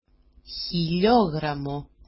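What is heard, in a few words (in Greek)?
"hiliogramo", Greek; χιλιόγραμμo; [çi'oγramo]; kilogram(me)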